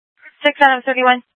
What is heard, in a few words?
Mutual Aid-Intersystem Interop B, scanner; AMBER Alert